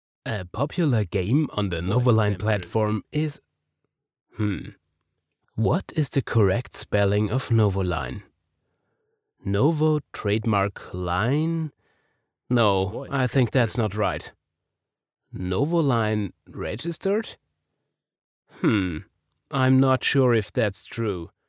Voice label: ENGLISH Erklärvideo